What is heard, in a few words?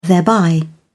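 "thereby", British English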